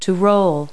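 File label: "roll", English word; Multi-Lingual Talking Picture Dictionary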